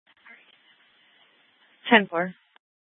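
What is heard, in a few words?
police dispatch, Scottsdale PD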